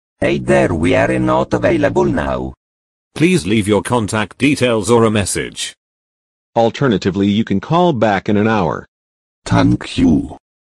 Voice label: voice message

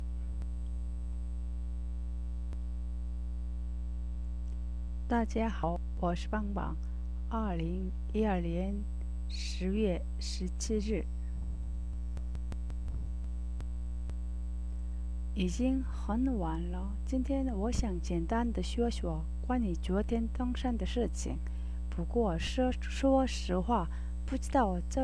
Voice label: chinese

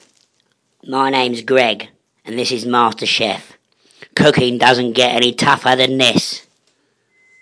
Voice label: helium nonsense

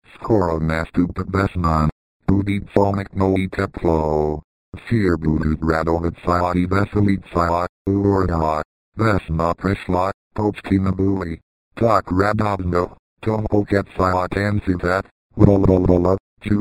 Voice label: wavepad s voice

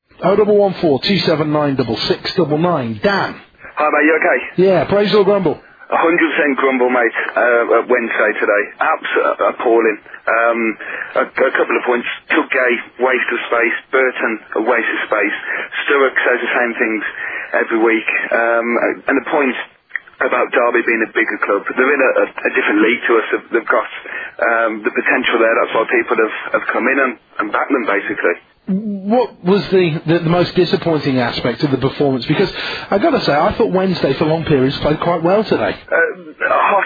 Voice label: funny radio clip

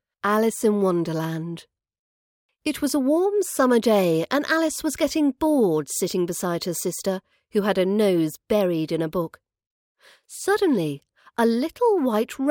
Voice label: british accent long form narrations

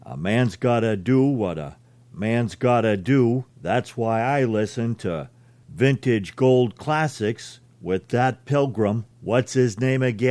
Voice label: john wayne sound alike radio promo

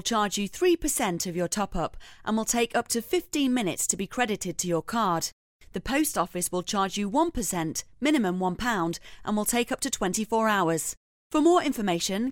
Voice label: mastercard voice over segments; goprepaid

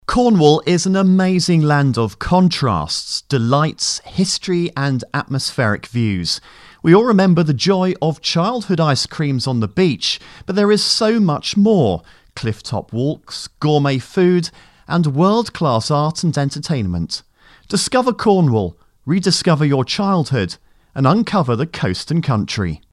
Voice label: voice over

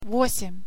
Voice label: how to say eight in russian

